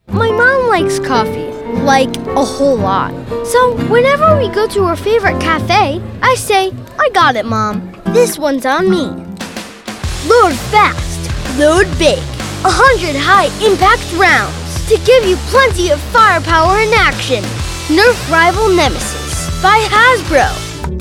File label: Commercial